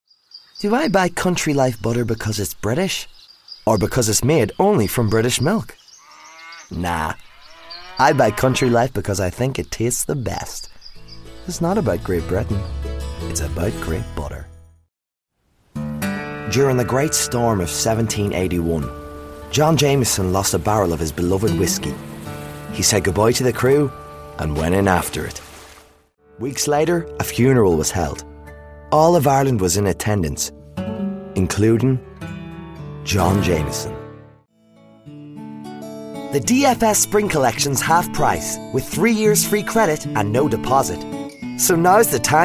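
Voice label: Irish, English accent; GoLocalise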